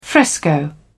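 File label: "fresco", British English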